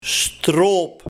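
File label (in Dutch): "Stroop", dialect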